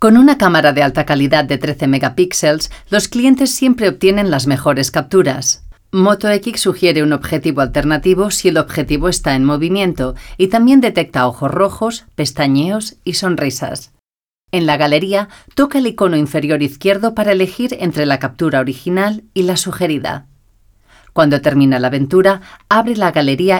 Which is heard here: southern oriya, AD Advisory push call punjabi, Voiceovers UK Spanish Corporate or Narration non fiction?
Voiceovers UK Spanish Corporate